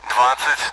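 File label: Speech Sound Russian Common AWACS Numbers; DCS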